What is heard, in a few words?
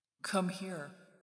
spooky voice